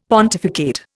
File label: "pontificate", Indian; Dictionary